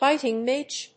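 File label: "biting midge", English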